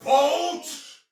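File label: See Player Soldier Male; Dialog; Barks; Battle; en; Sinecure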